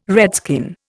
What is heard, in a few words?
"redskin", Indian